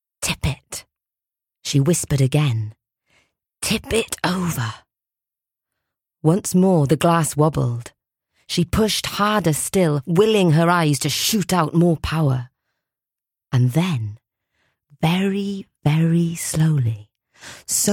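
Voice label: Audiobook, A rich and velvety Welsh voice.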